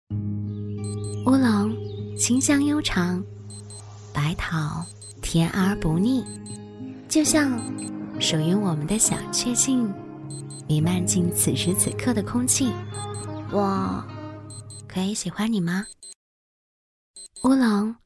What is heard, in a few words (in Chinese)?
女, 素人, 乌龙清香 年轻女声; 年轻知性; 甜美亲切